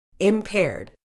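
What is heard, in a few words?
"impaired", British English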